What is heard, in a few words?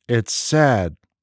Mental Health Awareness Professional male; NIIT; Global Mental Health